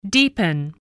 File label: "deepen", English